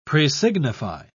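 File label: "presignify", British English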